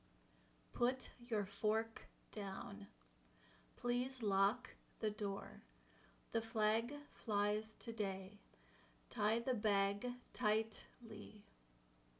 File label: k and g incorrect